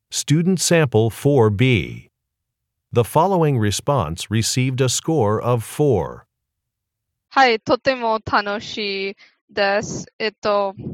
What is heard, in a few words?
Japanese conversation